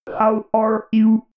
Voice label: robot voice how are you

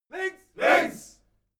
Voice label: military shout german left

